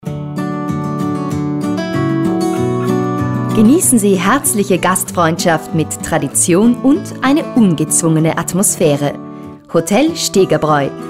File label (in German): Telefonansage; ON-HOLD; Sprecherin; Hotel Stegerbräu (Telefonansage); polysound